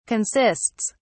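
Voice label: "consists", English, British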